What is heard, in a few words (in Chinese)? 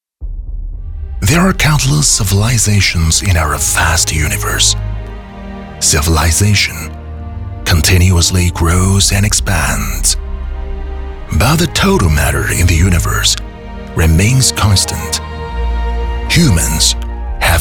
男英 外语 国内英语 专题大气震撼 大气